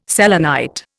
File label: "selenite", Indian